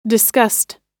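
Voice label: "discussed", US English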